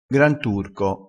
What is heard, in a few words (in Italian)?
"granturco", crespinese